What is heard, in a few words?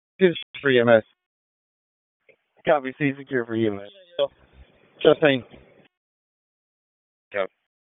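Police Southeast District Dispatch; Raleigh; wake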